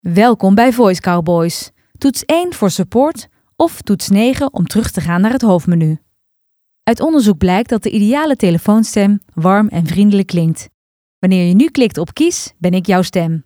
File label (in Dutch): VOICEMAIL